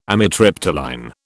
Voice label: "amitriptyline", British